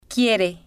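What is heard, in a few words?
"quiere", Spanish, female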